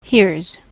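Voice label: "here's", US English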